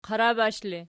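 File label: "qarabash" ergative, Archi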